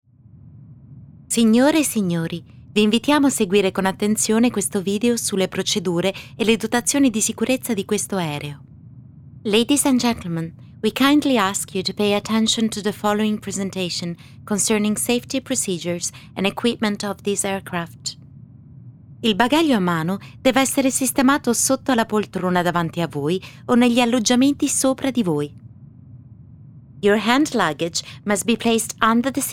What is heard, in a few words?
italian e-learning